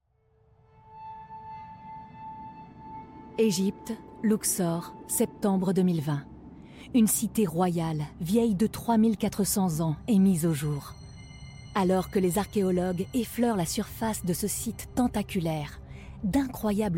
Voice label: Narration Documentaire Introduction; AKHENATON

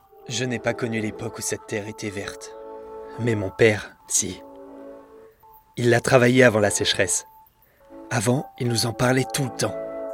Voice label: Voix Off Douce

